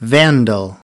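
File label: "vandal", US English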